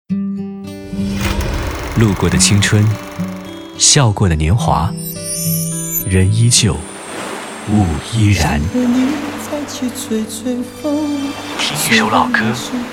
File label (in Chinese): 男, 电台片花; 主播有话说片花2